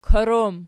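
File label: "kor" plural, Archi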